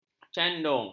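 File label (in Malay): "candung", Malay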